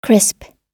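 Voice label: "crisp", English; oxford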